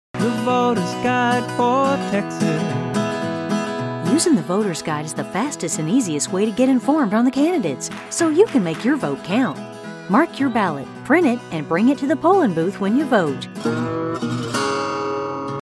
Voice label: Texas, voiceover